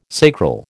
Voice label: "sacral", American